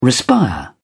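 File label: "respire", British English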